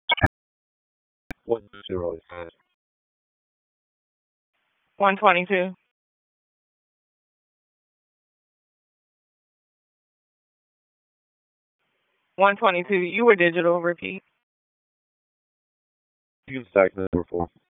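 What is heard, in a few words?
Police Southwest District Disp, radio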